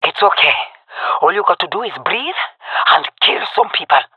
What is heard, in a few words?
scared, jungle fem epic; vo; agents; Source Model Packs; Counter Strike Model Pack; CS2